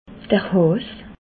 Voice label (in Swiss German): "haas", Alsace